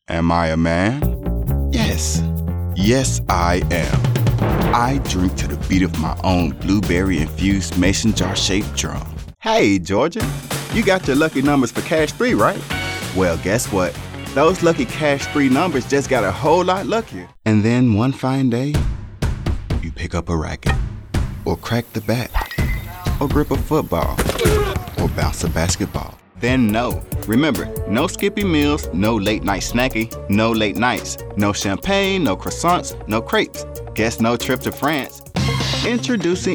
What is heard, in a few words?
Commercial, voice demo production samples